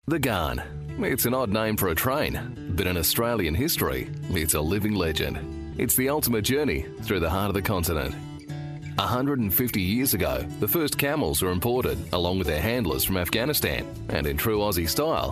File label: narration